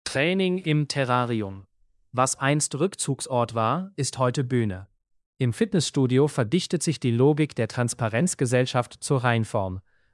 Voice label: male; Training im Terrarium – Schweizer Monat